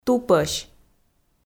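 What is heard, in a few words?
"тупӧсь", Komi, female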